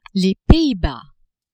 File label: "Pays-Bas", French; pays majeurs